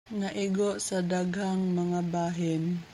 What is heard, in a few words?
Cebuano; struck multiple parts